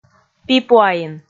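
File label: "пипуаин", Komi, female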